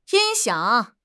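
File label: "音响", Mandarin Chinese; HSK6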